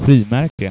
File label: "frimaerk", Swedish